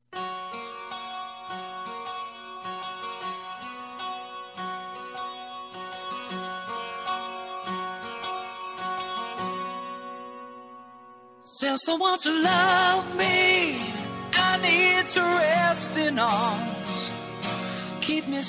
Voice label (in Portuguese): 15 anos masc